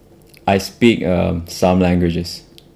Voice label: interviewee male